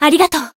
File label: healed, jp; isuka